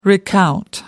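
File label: "recount", British English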